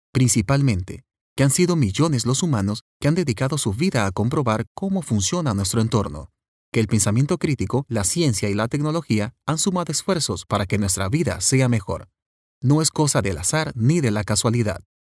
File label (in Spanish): locutor panama voiceover; locutor de Panamá